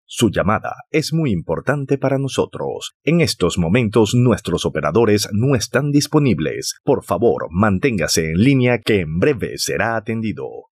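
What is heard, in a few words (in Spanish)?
mensaje espera off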